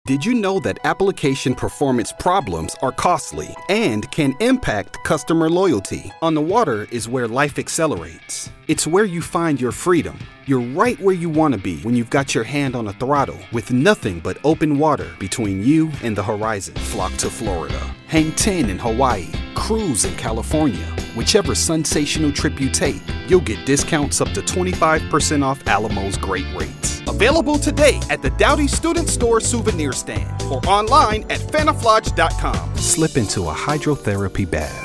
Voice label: MALE